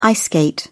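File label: "ice skate", British English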